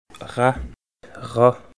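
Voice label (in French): a, Swiss French Vaud, rat ras; I. Le système vocalique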